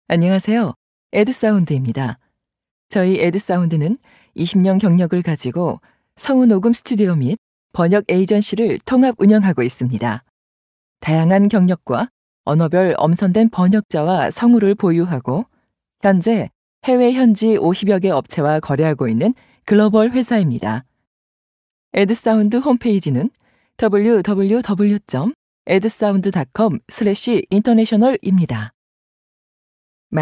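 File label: Korean female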